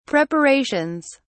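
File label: "preparations", British English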